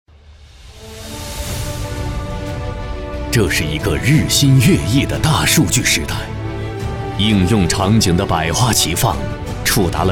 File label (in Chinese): 男 专题 大气 浑厚; 数博会